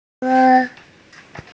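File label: "weng1", Mandarin Chinese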